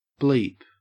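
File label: "bleep", English, Australian accent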